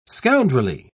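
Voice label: "scoundrelly", British English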